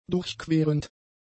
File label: "durchquerend", german; adjectives